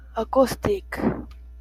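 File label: "acoustic", JPN, female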